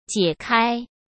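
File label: "解开", Mandarin Chinese; hsk; chinese-flashcards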